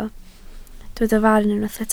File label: "farn", Welsh